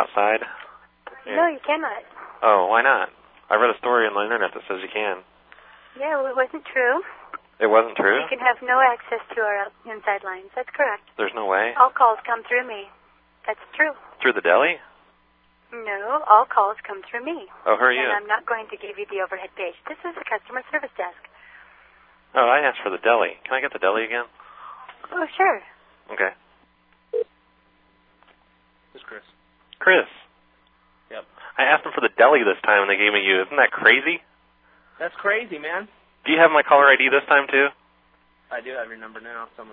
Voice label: paging extension; fred meyer; Random PLA Sound Clips